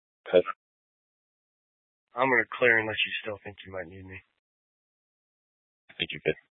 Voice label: Police Dispatch